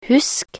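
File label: "husk", Norwegian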